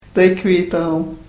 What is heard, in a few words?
karuk